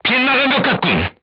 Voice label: voice message armor not pierce, korean, crew tanks